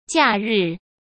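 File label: "假日", Mandarin Chinese; chinese flashcards; hsk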